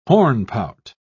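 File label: "hornpout", British English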